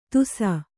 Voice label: "ತುಸ", Kannada

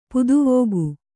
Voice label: "ಪುದುವೋಗು", Kannada

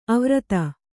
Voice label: "ಅವ್ರತ", Kannada

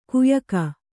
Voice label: "ಕಯಿಕೆ", kannada

